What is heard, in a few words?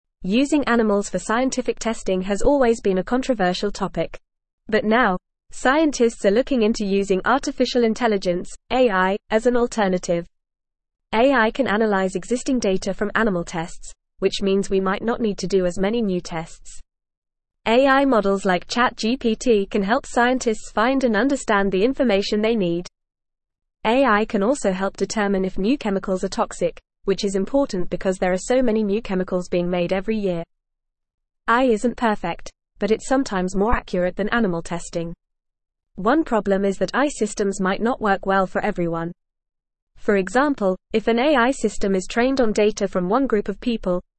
English FAST Reading; AI Accelerates Search for Non Animal Testing Altern